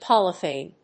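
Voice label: "polythene", English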